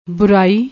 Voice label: "boorai", Punjabi

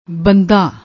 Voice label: "bandda", Punjabi

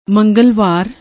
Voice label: "mangalvaar", Punjabi

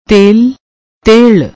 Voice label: "tel", Punjabi

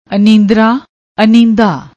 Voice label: "anindra", Punjabi; ਅਨੀਂਦਾ

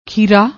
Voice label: "kheera", Punjabi; ਖੀਰਾ

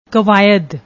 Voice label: "kwaaid", Punjabi; ਕਵਾਇਦ

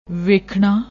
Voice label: "vekhna", Punjabi; ਵੇਖਣਾ

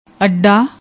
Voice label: "adda", Punjabi; ਅੱਡਾ

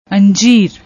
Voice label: "anjeer", Punjabi; ਅੰਜੀਰ

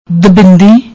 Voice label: "dubindi", Punjabi

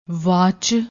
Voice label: "wach", Punjabi; ਵਾਚ

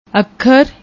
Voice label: "akhar", Punjabi

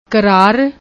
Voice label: "krar", Punjabi

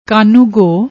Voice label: "kanugo", Punjabi

